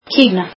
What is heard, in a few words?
icelandic China